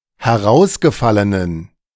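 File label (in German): "herausgefallenen", German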